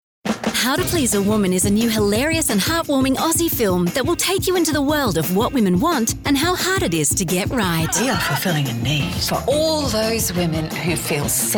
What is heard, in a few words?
CINEMA TRAILER, voices